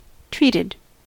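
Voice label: "treated", US English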